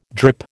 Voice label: "drip", American

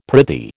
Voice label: "prithee", American

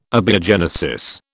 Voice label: "abiogenesis", British

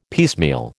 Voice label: "piecemeal", American

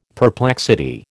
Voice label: "perplexity", American

